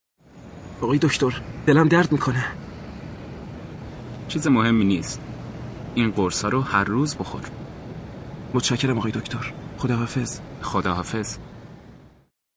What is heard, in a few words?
Dialogue lesson Farsi